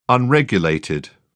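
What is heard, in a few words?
"unregulated", British English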